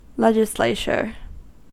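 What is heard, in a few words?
"legislature", US English; pronunciations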